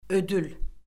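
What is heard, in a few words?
"ödül", Azerbaijani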